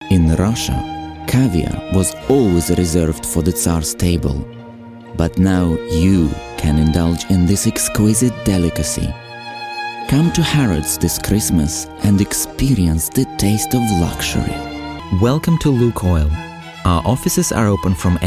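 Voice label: Russian Accent, voiceovers; foreign languages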